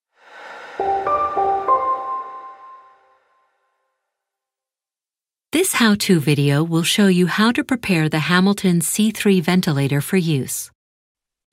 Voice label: Medical Narration